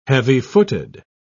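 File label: "heavy-footed", British English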